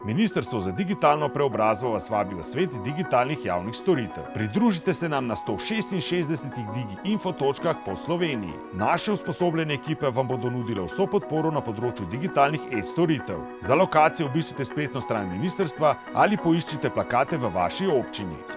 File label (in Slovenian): radio